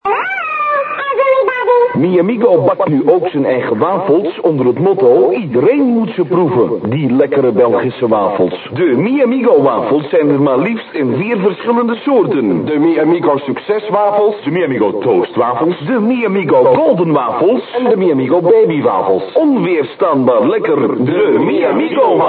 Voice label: commercial